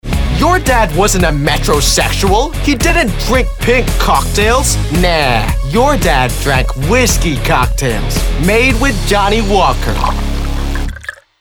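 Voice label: Commercial, American English; Johnny Walker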